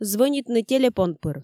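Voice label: "звӧнитны телепон пыр", Komi, female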